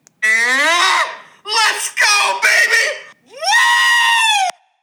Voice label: lets go baby wooo

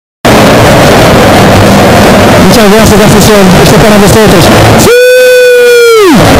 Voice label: suii bass boosted

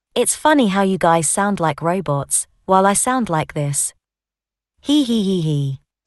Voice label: realistic voice

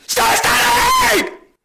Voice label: stop stuttering; tyler1

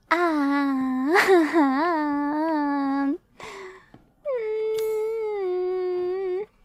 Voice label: concerned; fauna

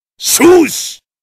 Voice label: japanese voice clips; ssfiv ae; akuma

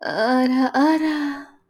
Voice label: ara ara mature female voice

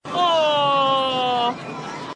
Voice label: oooh sarcastic

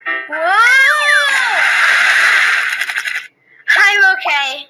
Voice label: woah splash im okay

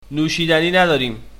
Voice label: peasant male; stronghold

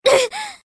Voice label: pain; kasumi

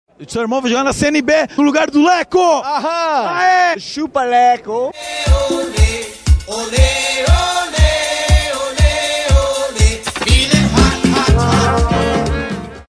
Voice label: sssss

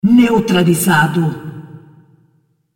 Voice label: pt br female on killed unit

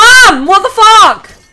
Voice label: mum wtf emote

